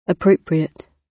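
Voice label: "appropriate" British; Business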